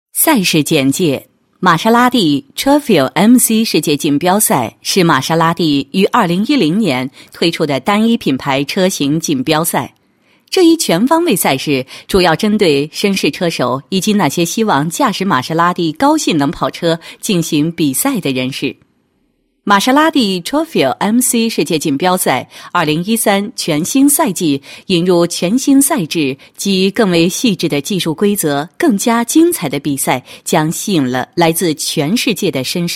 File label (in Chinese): 女 专题 时尚感觉 解说, 国语女声配音; 玛莎玛蒂解说